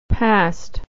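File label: "passed", American English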